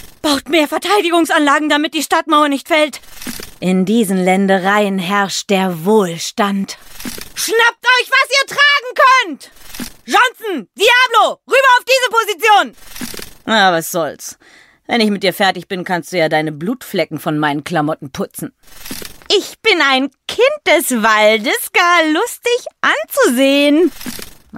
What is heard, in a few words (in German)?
lokalisierungsmix, Frauen